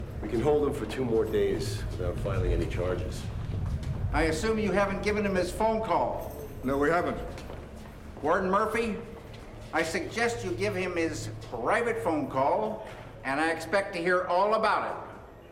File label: private phone call; Brings Back Some Memories